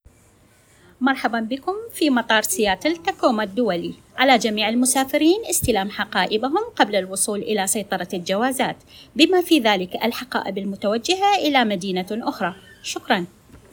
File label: Arabic Bags First, (speaking in foreign language); seattle; portofseattle; Shukran